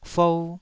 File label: "fou2", Taiwanese